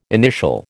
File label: "initial", American